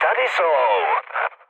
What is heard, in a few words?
enemy detected, speech cze, mood low; War Thunder